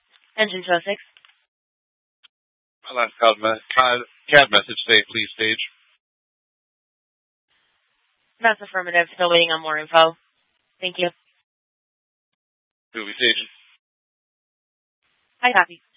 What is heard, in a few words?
Fire Departments Mesa Simulcast; TOPAZ